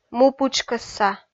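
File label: "мупытшкӧсса", Komi, female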